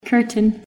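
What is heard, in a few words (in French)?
"rideau", English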